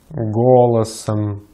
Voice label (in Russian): "голосом", Russian; Consonantes en ruso; easypronunciation